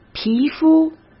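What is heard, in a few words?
"皮肤", Mandarin Chinese; chinese flashcards; hsk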